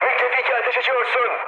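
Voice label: Turkish player friendly fire, mood high, speech; War Thunder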